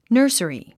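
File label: "nursery", English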